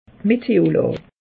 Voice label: "meteolaa", alsace

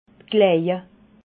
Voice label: "klaie", alsace

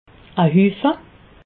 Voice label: "huffe", alsace

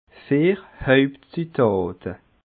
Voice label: "hauptzuetate", alsace

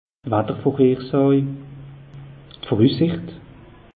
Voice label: "watter vorhersàj", alsace; meteo

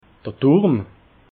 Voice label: "durm", alsace

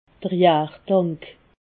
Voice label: "jartank", alsace

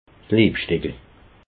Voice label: "liebsteckel", alsace

